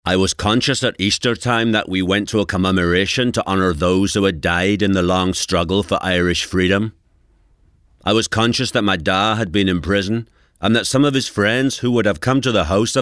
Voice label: Belfast accent